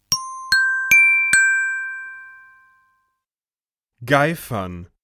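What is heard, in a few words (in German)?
"geifern", German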